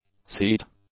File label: "seat", English; nouns